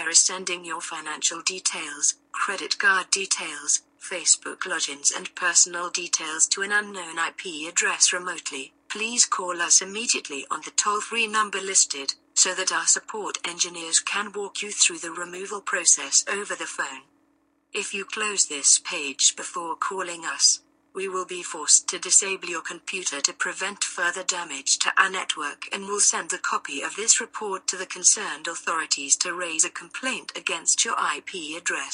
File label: Bogus Virus Scam robotic voice alert